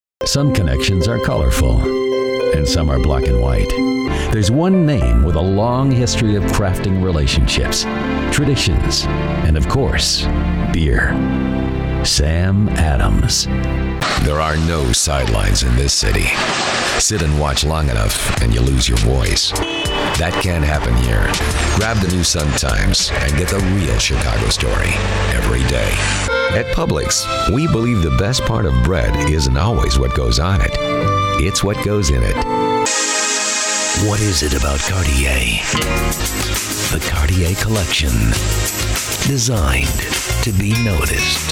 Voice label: Commercials